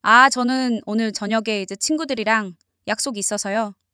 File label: female answer